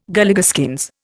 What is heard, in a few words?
"Galligaskins", Indian